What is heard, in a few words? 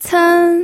"cen1", pinyin